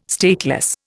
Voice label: "stateless", Indian